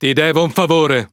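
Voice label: Speech Quick Commands Thank You, Italian; World Of Warships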